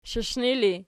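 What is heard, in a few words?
shiishni ergative, Archi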